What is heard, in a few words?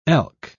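"elk", English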